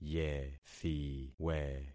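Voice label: "yethiwe", concatenated syllables; Affixing experiment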